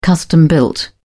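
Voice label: "custom built", British English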